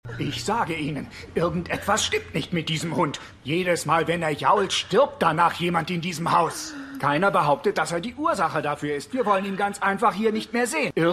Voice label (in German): Wuetender Mieter; X Factor